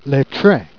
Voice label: "train", jerriais

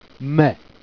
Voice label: "mmee", jerriais

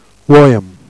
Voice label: "ouoyamme", Jèrriais

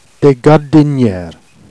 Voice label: "gardinniers", Jèrriais

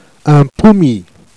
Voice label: "un pommyi", Jèrriais

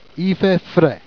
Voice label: "i fait fraid", Jèrriais

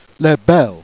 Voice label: "bel", jerriais

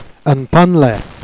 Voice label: "pannelee", Jèrriais

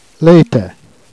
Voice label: "ete", Jèrriais

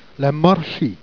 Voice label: "marchi", Jèrriais

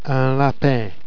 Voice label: "lapin", Jèrriais

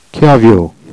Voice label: "cliavieaux", Jèrriais

